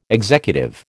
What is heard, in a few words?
"executive", American